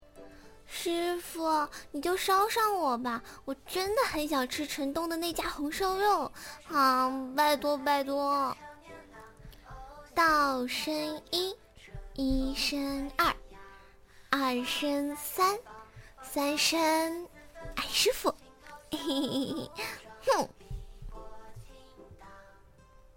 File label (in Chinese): 古风少女音